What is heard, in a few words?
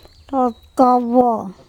my skin THotkObO, Andamanese